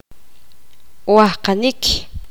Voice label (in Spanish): poqomam frotar